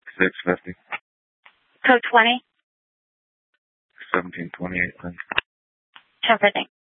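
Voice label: Sheriff, Parks, Sheriff radio scanner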